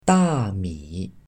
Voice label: "大米", Chinese